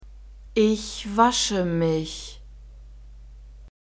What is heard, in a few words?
"ich wasche mich", German; nouns declension accusative